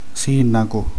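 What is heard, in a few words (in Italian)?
"sindaco", Italian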